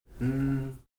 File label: "ṇ́", Heiltsuk